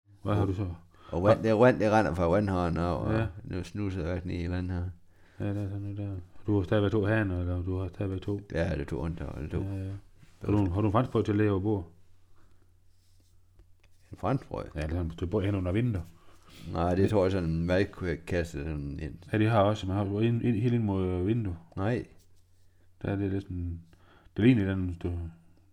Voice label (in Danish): SKERN dialekt maend aar